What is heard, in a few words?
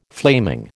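"flaming", American English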